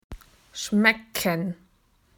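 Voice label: "to taste", Australian English; PictureDictionary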